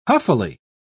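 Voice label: "huffily", English, uk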